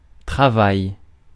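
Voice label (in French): "travail", French; Mots francais phonemes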